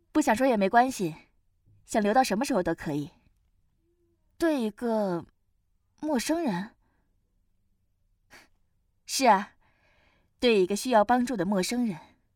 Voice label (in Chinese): 样音 稳重 女